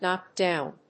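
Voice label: "knocked down", English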